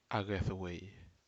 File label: Aneityum go in